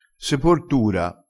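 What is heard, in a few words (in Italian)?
"seportura", vocabolario dialettale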